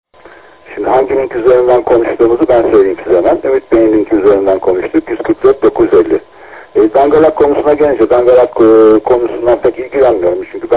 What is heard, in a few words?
QSO; KONFERANS ODASINA SATASMALAR